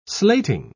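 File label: "slating", British English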